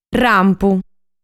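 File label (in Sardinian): "Rampu", Sardinian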